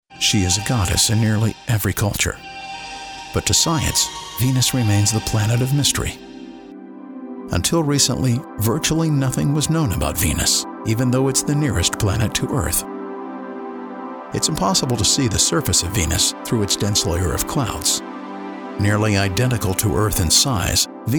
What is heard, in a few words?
Narrative